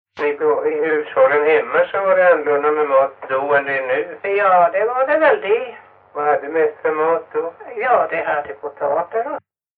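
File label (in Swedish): Stenåsa Öland, dialekter svenska sagor; Folkminnen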